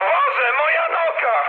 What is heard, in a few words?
damaged pilot mood med, speech pol; War Thunder